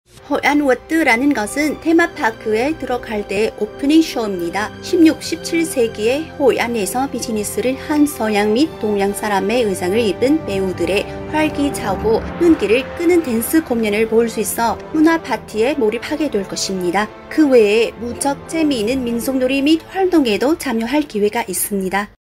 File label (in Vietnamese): Thu am Tieng Han